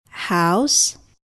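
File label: "house", English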